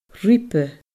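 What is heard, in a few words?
"ribe", Scottish Gaelic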